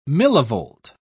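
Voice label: "millivolt", British English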